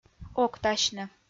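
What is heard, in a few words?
"октасьны", Komi, female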